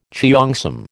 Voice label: "cheongsam", American